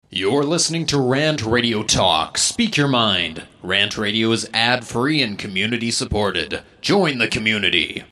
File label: Promo talk psa; RantRadio; Station Promos-In House